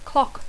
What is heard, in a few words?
"clock", English